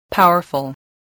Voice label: "powerful", voice, spelling